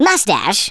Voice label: "run away", speech; Worms Armageddon